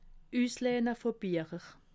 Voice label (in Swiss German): "usleihje", alsace